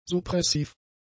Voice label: "suppressiv", German; adjectives